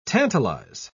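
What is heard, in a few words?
"tantalise", British English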